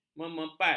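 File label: "memepat", Malay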